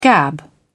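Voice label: "gab", British English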